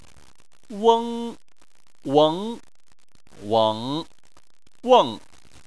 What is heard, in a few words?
"weng", chinese